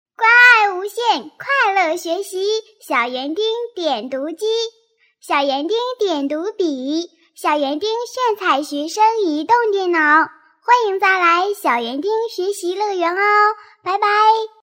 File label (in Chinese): 女, 童声, 国语女声配音; 校园丁学习乐园